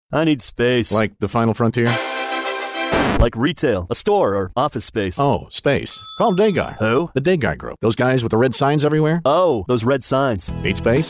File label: commercial